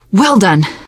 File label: nice job, teengirl